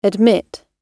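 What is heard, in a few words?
"admit", British English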